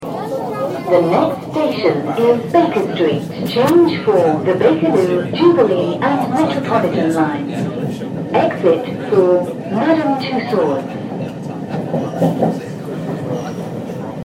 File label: Next Baker Street, Underground, Circle line